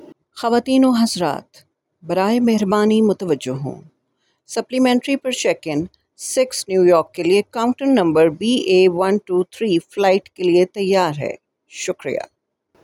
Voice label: Urdu female